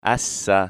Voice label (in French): s son, phonetique